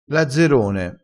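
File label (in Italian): "lazzerone", dialettale crespinese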